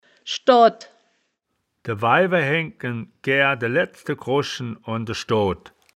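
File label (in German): "schdoad", wittlicher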